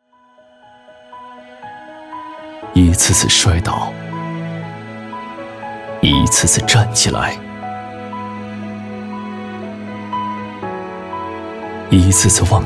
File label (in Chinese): 男, 质感广告; 中国国际少儿影视艺术节